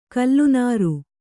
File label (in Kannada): "ಕಲ್ಲನಾರು", Kannada